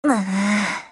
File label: LOW MOOD, JP